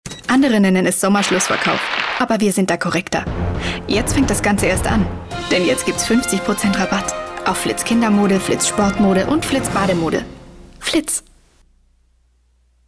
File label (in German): Frau OFF